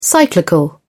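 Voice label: "cyclical", British English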